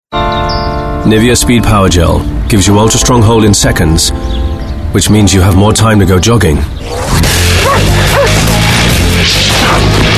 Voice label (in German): uk englisch